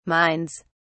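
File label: "minds", British English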